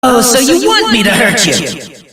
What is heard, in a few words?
threaten; adachi